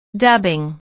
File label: "dubbing", ingles